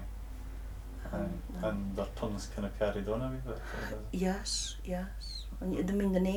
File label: Northern and Insular Scots, Shetland - Lerwick (originally northern mainland)